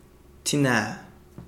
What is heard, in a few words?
stomach, Kapingamarangi